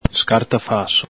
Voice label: "scartafaso", Veneto